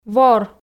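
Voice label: "вор", Komi, female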